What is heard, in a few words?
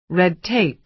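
"red tape", American English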